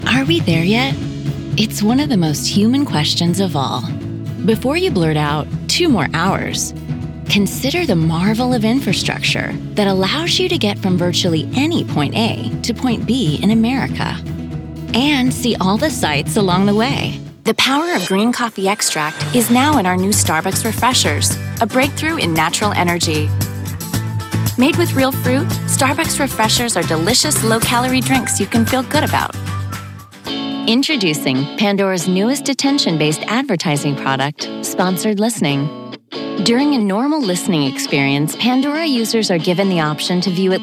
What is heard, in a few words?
women commercial